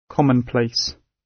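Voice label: "commonplace" British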